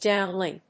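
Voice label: "downlink", English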